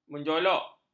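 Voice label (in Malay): "menjolok", Malay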